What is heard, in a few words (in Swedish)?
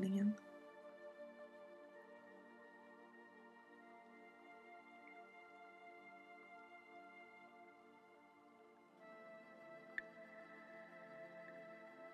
Meditation Våga vara modig